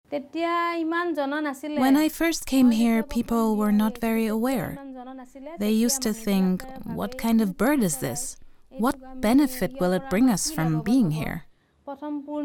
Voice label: ENG OVERVOICE; OVERVOICE ARTE Hargila Army